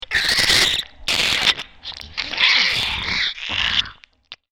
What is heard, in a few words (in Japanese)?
ゾンビボイス